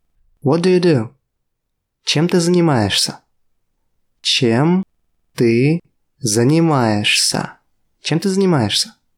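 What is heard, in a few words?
Russian, What do you do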